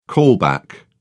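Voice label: "callback", British English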